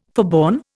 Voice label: "forborne", Indian